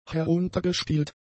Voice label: "heruntergespielt", German; adjectives